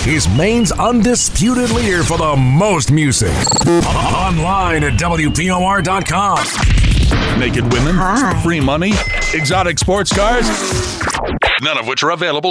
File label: Country Imaging